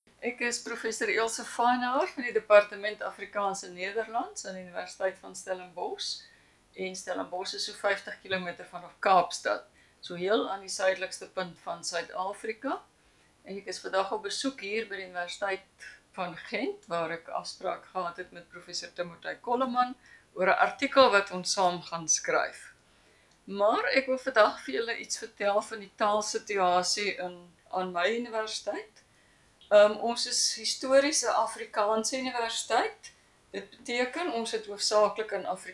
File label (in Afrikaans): standaardafrikaans